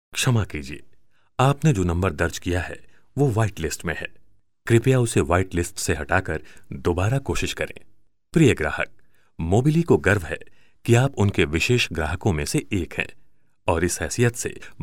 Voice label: Hindi Male

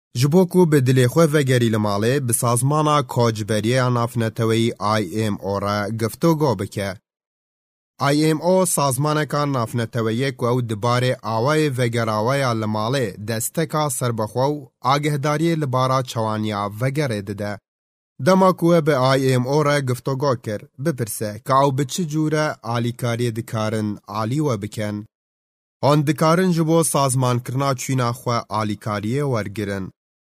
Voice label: Kurdish Kurmanji Male

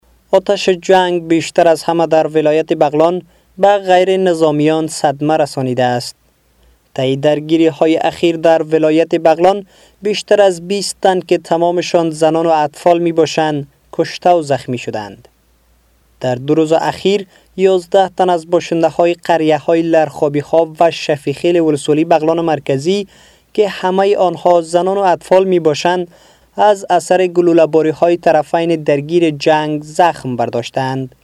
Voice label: Dari Male

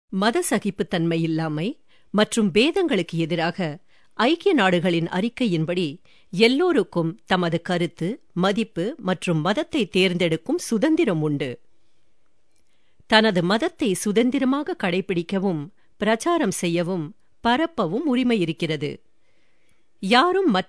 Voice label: Tamil Female

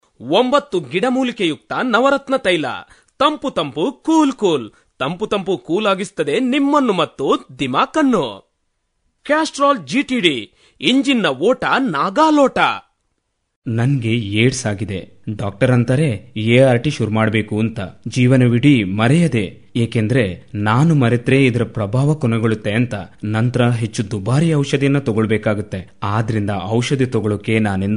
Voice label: Kannada Male

